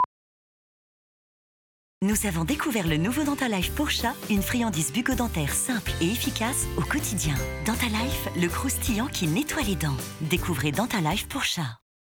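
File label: French; NES Purina DentalLife Chat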